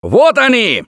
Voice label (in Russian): boomer jar, left4dead2 russian, Survivor Voice